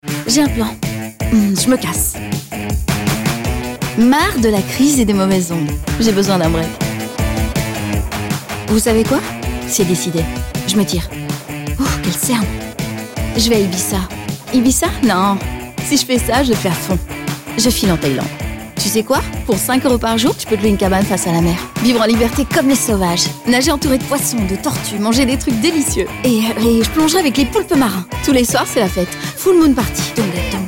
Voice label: female french wear comercial; ideasonora

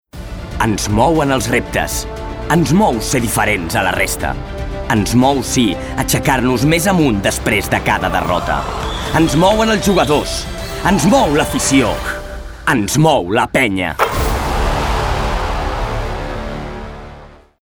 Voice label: male catalan sport comercial; ideasonora

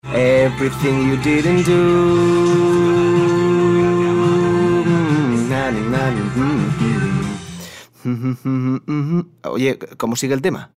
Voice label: male spanish beer comercial; ideasonora

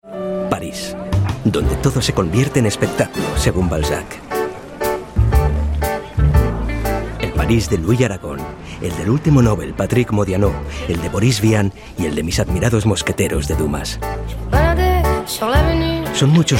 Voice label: male spanish comercial; paris; ideasonora

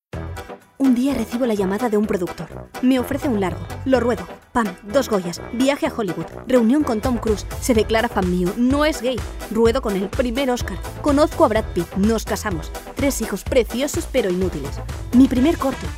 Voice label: female spanish festival comercial

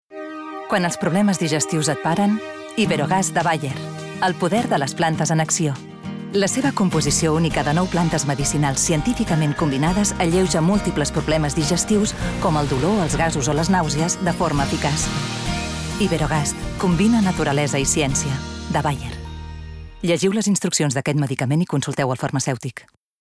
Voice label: female catalan comercial; ideasonora

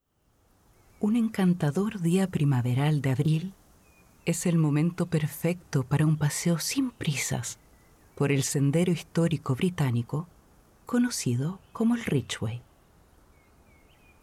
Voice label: female latam chilean comercial; ideasonora

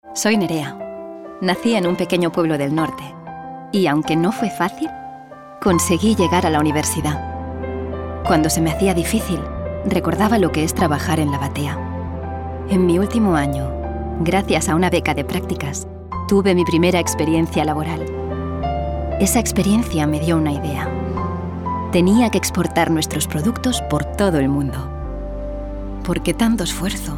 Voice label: female spanish comercial; ideasonora

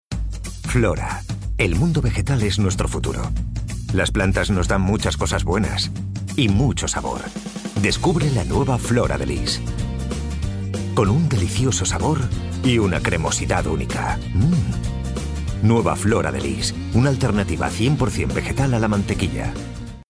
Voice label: male spanish butter comercial; ideasonora

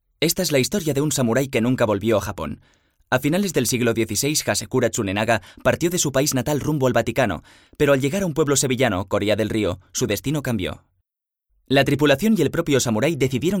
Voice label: male spanish food comercial; ideasonora

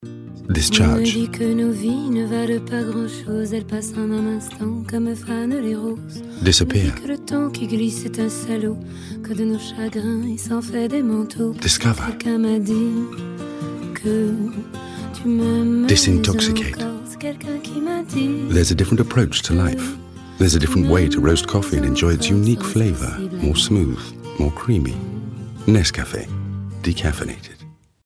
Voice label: male british english coffee comercial; ideasonora

